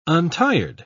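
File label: "untired", British English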